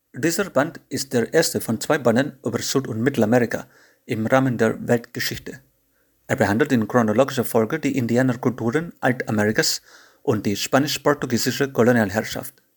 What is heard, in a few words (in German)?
Indischer Akzent